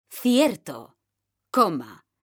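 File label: "cierto coma", Spanish, female; mekanta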